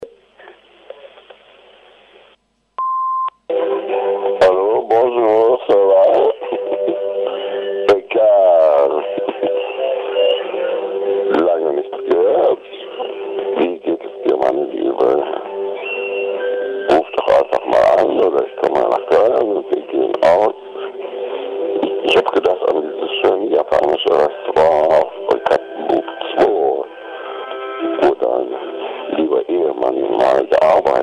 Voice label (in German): Anrufbeantworter; sheepworld happy digits